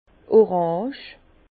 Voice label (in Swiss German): "orange", Bas Rhin